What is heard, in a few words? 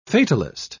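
"fatalist", British English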